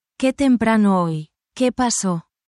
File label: spanish, spanish phrases